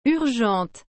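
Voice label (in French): "urgente", French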